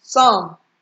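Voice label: "some", Nigerian Pidgin, female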